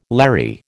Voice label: "Larry", American

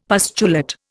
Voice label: "pustulate", Indian; Dictionary

